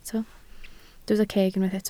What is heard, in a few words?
"ceg", Welsh